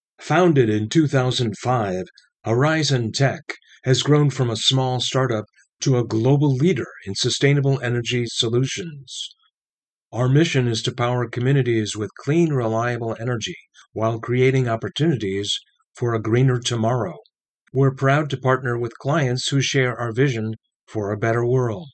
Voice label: Company Overviews, Voice Over Narration; Horizon Tech

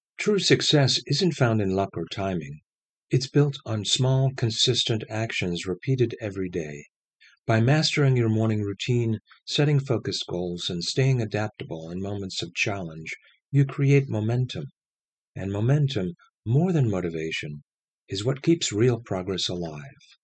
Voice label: Non Fiction, voice over narration; True Success

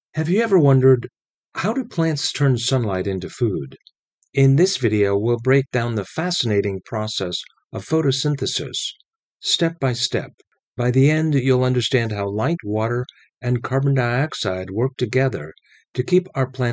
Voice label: Educational Videos Plants, voice over narration

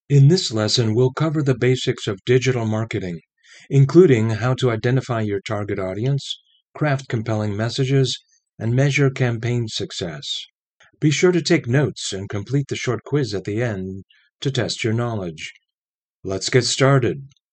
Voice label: Instructional Courses, Voice Over Narration

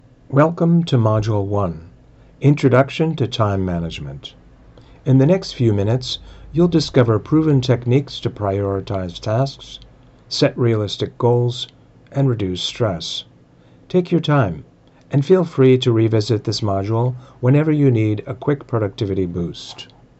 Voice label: E-Learning Online Modules, voice over narration

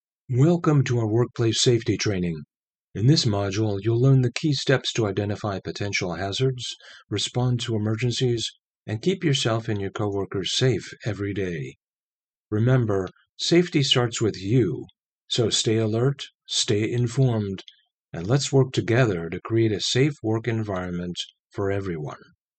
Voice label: Internal Training Videos, Voice Over Narration; Internal Corporate Training Videos

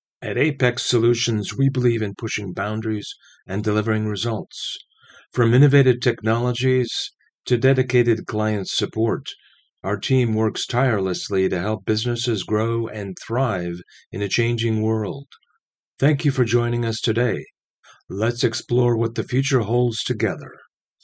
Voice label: Corporate Presentations, voice over narration; Apex Solutions

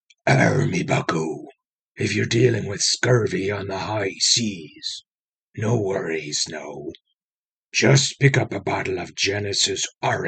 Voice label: pirate orange juice commercial; Scurvy? No worries, matie! Pirates love their orange juice!

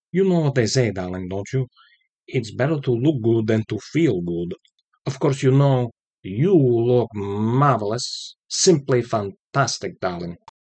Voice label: voice over narration; Fernando Lamas - an actor from the 60s and 70s

